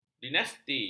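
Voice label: "dinasti", Malay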